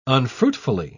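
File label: "unfruitfully", English, uk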